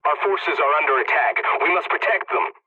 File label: pilot point under attack, english; War Thunder